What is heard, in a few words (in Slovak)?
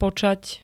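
"počať", Slovak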